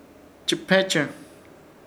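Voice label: "Chɨpechɨr", Chamacoco word, amasar; Ishir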